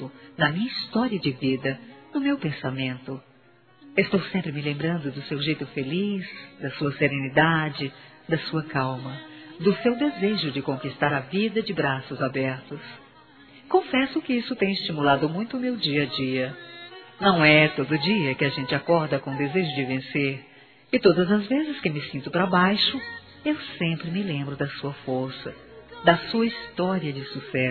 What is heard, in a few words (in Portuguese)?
carinho neutra; Telemensagens; fem; Telemensagens 2017